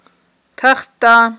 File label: "тахтта", Aghul